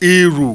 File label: "iru", Yoruba, mid mid tone; Nine Possible Combinations; Initial Mid tone combinations